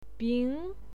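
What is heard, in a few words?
"bing", Mandarin Chinese, second tone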